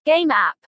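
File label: "game app", English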